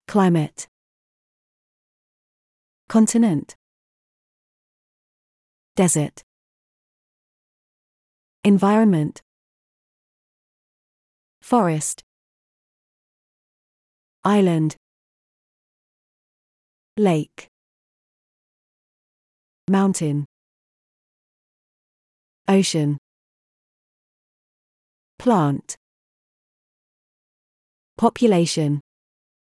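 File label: British; earth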